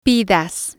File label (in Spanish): "pidas", spanish